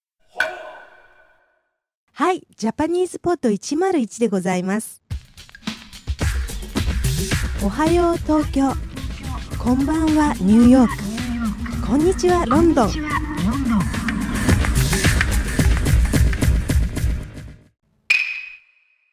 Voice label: dialog; JapanesePod101; Beginner Season 1